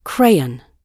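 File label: "crayon", British English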